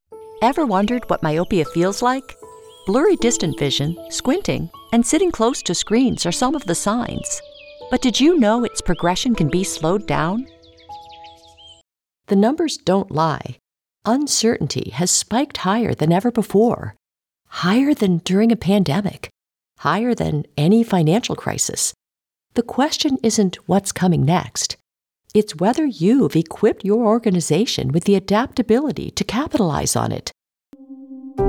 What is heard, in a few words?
Narration